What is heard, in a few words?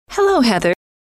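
"hello", female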